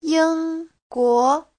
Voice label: "ying1 guo2", Chinese